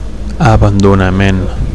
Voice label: "abandonament", Catalan

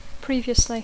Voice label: "previously", English

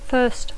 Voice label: "first", English; adjectiu; primer

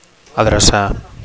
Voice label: "adrecar", Catalan

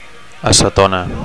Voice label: "acetona", Catalan; (f) acetone

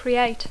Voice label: "create", English; verb tr conj crear; elaborar

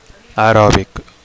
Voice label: "aerobic", Catalan; aerobics

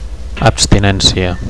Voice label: "abstinencia", Catalan; (f) abstinence

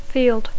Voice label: "field", English

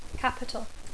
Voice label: "capital", English

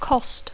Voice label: "cost", English

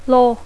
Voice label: "law", English

